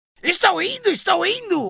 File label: voice portugues brasileiro brazilian portuguese; ziggs; league of legends